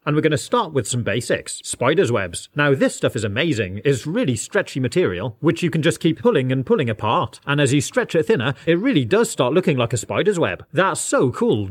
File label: British English UK Test